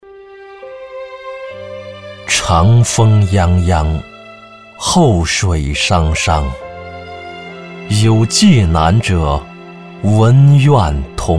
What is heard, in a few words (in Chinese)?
男 专题片; 暨南大学百年